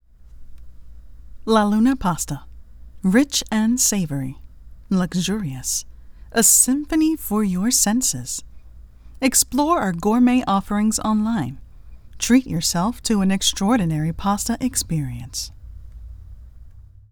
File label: Food Commercial; 15 sec